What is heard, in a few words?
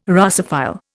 "Russophile", Indian